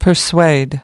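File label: "persuade", English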